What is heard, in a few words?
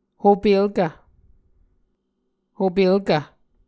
"hopelkv", Muscogee